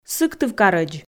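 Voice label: "сыктывкарӧдз", Komi, female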